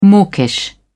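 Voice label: "mawkish", British English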